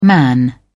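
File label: "man", English, uk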